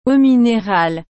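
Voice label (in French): "eau minérale", French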